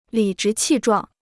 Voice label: "理直气壮", Chinese

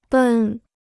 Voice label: "奔", Chinese

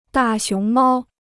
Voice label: "大熊猫", Chinese

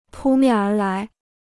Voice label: "扑面而来", Chinese

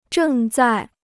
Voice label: "正在", Chinese

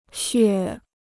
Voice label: "血", Chinese

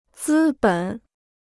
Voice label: "资本", Chinese

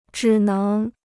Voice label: "只能", Chinese; zhǐ néng; can only; obliged to do sth.

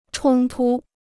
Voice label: "冲突", Chinese

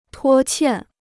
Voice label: "拖欠", Chinese

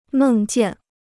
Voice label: "梦见", Chinese

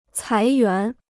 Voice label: "裁员", Chinese

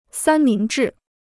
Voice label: "三明治", Chinese

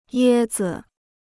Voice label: "椰子", Chinese

